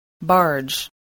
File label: "barge", English